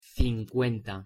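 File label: pronunciation "cincuenta", Spanish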